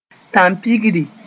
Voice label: "tãn-pigri", moore

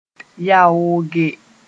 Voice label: "yaooge", moore

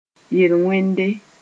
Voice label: "yel-wẽnde", moore

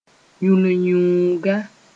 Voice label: "yũlinyũuga", moore

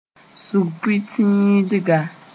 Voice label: "sʋg-pʋɩ-tĩidga", Moore; median

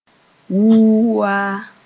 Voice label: "wuu-waa", moore; mumps

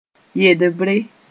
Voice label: "yedbre", moore

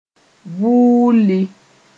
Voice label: "vuulli", moore; trace

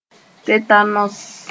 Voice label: "tetanos", Moore

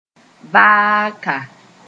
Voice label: "vaaka", moore

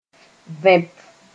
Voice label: "vẽp", moore

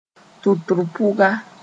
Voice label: "tʋtr-pʋga", moore; pregnant woman

